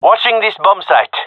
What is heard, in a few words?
guarding bombsite, male; vo